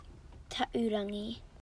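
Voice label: "tahurangi", Māori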